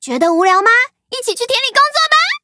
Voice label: touch, zh hant; Cookie Run

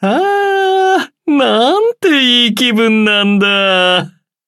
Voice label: levelup ja; cookie run

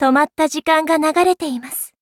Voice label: levelup ja; Cookie Run

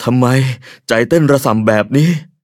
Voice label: level up, th; cookie run

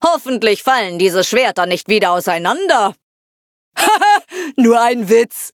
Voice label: loading, de; Cookie Run

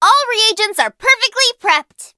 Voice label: level up, en; cookie run

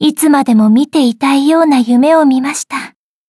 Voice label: upgrade, ja; Cookie Run

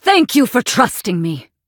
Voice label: levelup en; cookie run

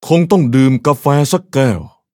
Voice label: level up, th; cookie run

